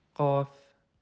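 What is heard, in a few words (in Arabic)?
"ق", Arabic; quiz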